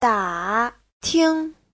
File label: "da3 ting5", chinese; Giáo trình Hán ngữ